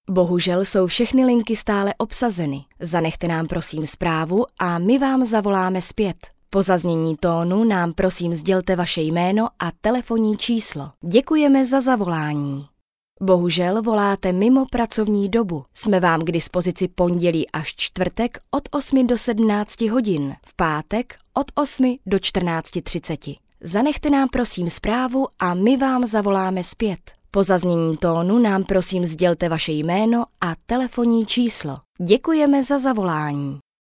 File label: czech f narration, czech voice